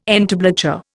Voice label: "entablature", Indian